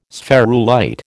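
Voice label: "spherulite", American English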